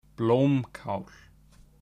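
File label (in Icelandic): "blómkál", Icelandic; matarhefti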